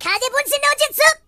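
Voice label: Fire punch, British English; Default uk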